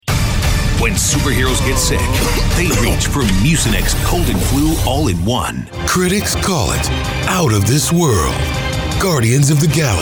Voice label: Trailer Reel

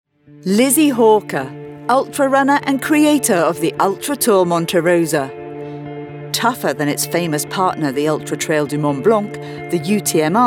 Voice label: Narration

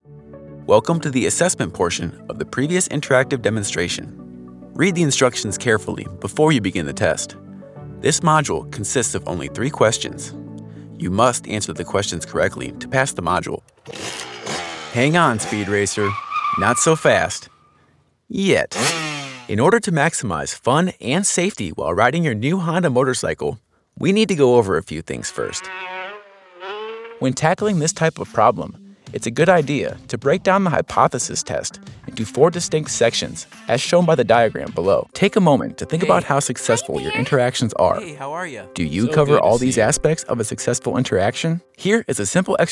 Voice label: ELearning

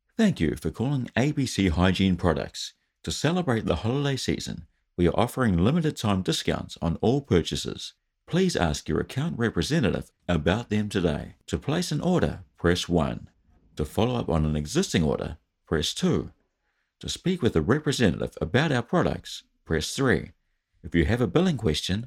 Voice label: IVR

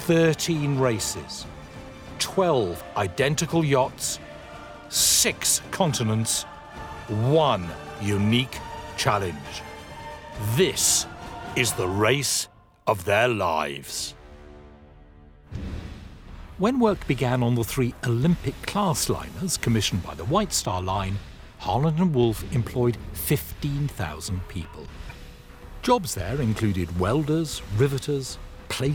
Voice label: Narrative

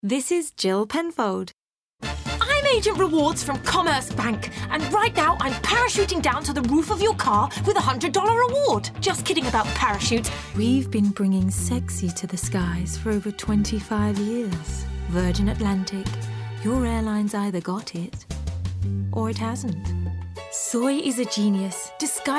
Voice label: Voice Reel Commercial

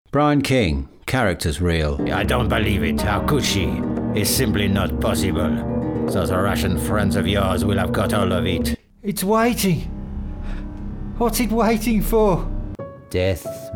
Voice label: Characters Accents

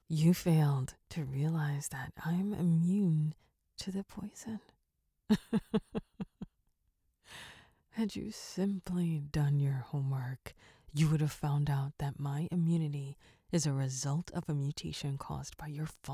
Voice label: character

